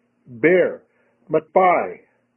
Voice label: "Metpay", Kumeyaay; Bare; Bare Without Covering

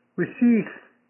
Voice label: "Wecshiilly", Kumeyaay; Asleep

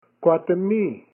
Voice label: "Kwathemii", Kumeyaay; Adults (Plural Form); Adults Elders

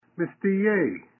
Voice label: Afraid Of Everything Mesteyay, Kumeyaay

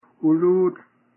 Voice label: "UuLut", Kumeyaay; To Walk Several Abreast

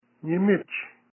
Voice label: Another Nyimich More Another, Kumeyaay

